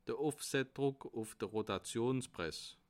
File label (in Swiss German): "rotationspress", alsace